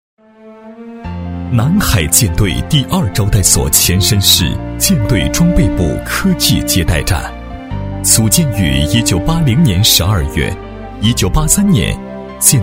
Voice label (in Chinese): 男 下午再试稍厚, 国语男声配音; 舰队二所